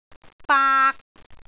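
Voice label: "baak3", Cantonese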